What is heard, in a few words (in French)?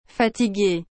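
"fatigue", French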